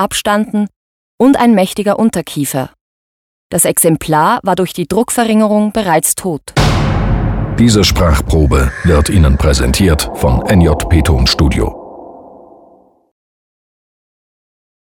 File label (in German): Fisch Info Deutsch